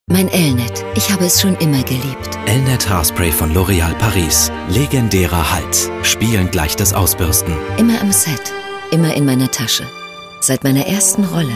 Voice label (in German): Sanft